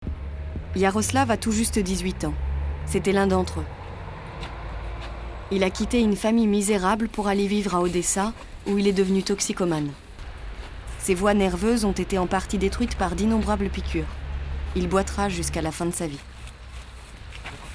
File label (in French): VOIX OFF journaliste; Yourope; Arte